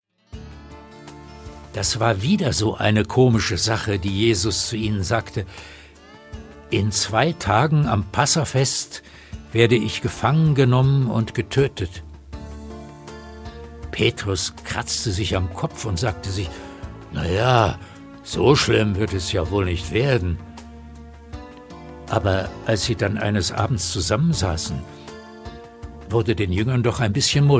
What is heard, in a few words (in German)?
Erzaehler